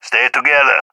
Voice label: request stick together, balkan; balkan epic